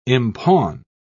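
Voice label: "impawn", British English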